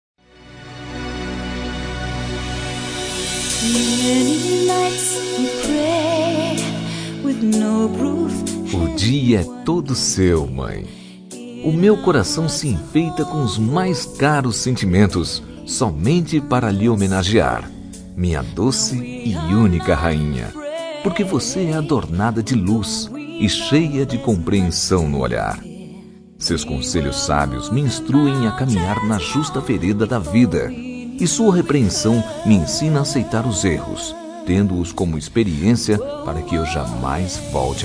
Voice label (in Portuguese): Homenagem à mãe masc; Dia das mães